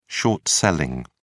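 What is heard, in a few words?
"short selling", British English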